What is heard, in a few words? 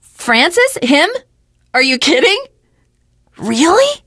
finale chat, teengirl, voice; Left 4 Dead 2